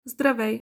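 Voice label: Bulgarian hello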